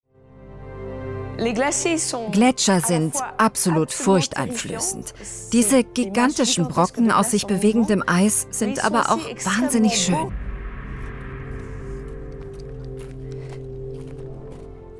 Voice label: voiceover beschreibend engagiert; zdfinfo 24 stunden erde

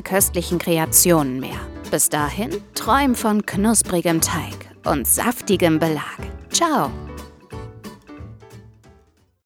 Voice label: telefonansage pizza; Muttersprache Deutsch

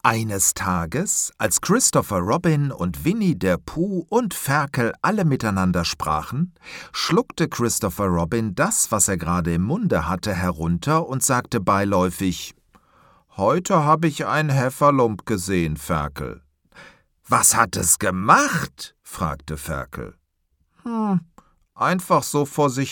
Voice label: lauter hoerbuch

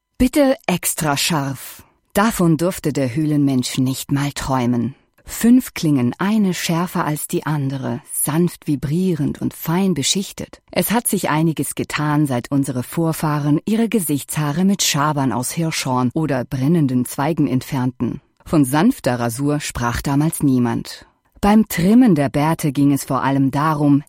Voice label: kommentar

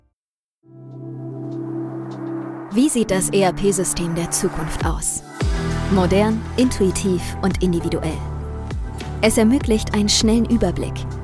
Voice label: Produktdemo

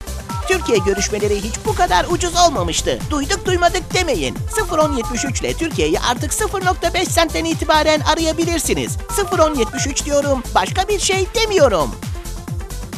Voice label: tvspot trickstimme tuerkisch

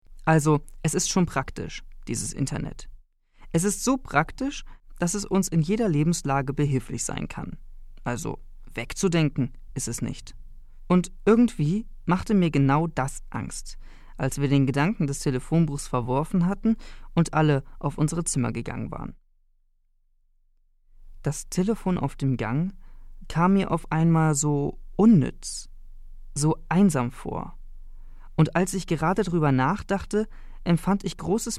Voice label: Radioessay mix

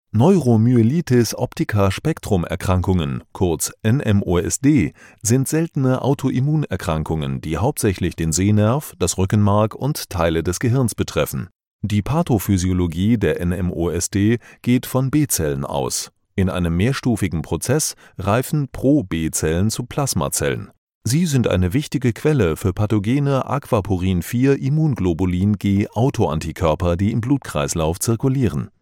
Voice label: eklaervideo medizin; Sprecher in Bornheim; Muttersprache Deutsch

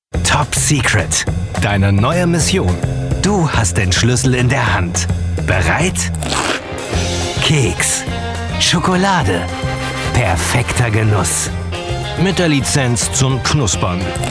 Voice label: Werbung dynamisch

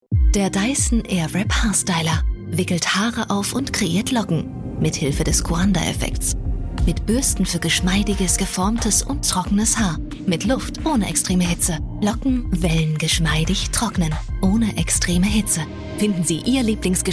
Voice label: tv werbung; dyson airwrap

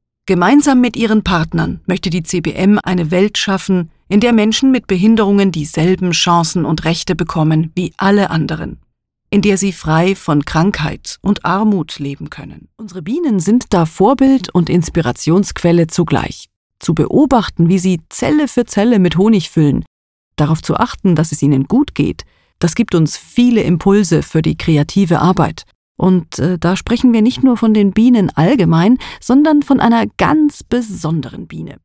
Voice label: hoerprobe imagefilm

